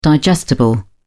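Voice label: "digestible", en, British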